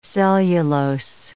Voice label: "cellulose", English